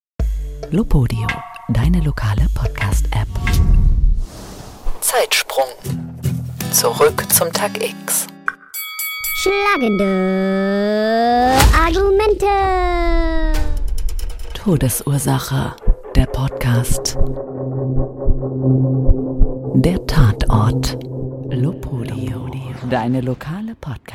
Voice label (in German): Station ID